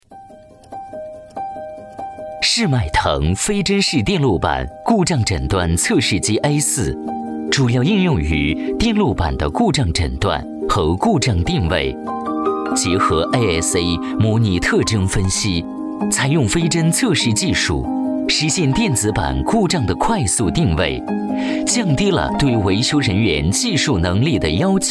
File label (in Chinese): 男 产品介绍 大气科技质感